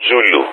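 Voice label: "Zulu", spoken; Ka-50; Alphabet; Zulu-continue; Speech; DCS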